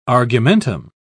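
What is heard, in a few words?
"argumentum", British English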